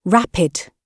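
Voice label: "rapid", British English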